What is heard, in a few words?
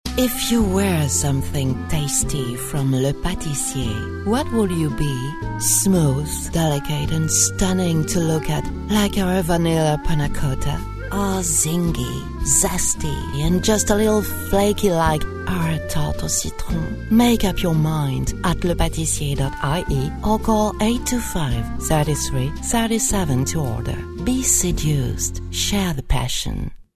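French, female, English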